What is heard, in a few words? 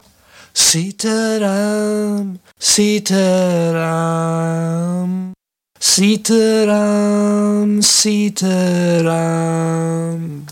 sibilance; mixing